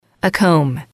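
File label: "a comb", English, female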